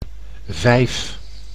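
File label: "vijf", Dutch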